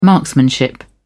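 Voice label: "marksmanship", British English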